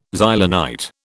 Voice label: "Xylonite", British

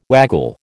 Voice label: "waggle", American

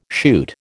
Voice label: "shoot", American

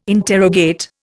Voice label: "interrogate", Indian

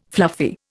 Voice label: "fluffy", Indian

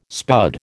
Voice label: "spud", American

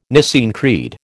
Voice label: "Nicene Creed", American

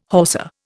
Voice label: "Hausa", Indian

